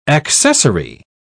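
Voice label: "accessary", British English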